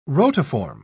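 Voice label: "rotiform", British English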